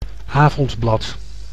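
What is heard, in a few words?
"avondblad", Dutch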